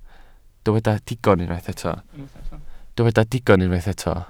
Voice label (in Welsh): "digon", Welsh